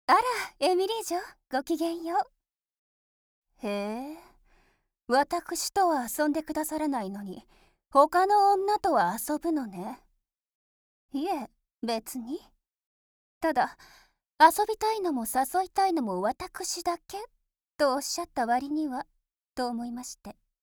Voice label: Tsundere lady

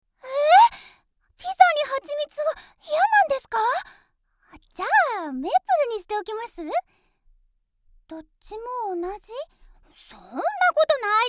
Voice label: natural girl

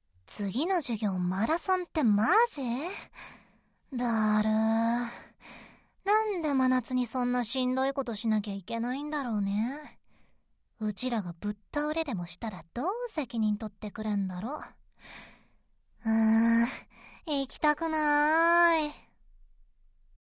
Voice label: Downer high school girl; 女子高生(ダウナー系)

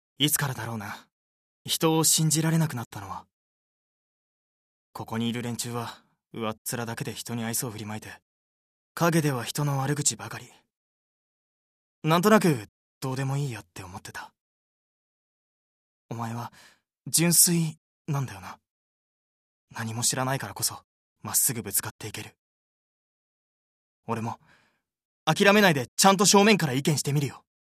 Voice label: youth

